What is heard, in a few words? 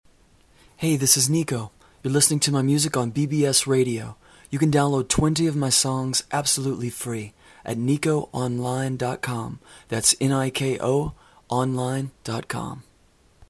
bbs radio promo